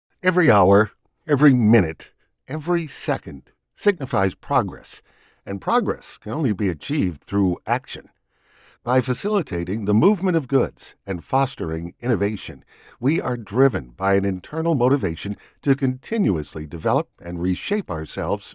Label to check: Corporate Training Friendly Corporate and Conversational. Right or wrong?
Wrong